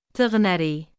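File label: "6ighnarii", Arabic; nisbah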